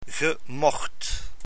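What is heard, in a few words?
"gemocht", Dutch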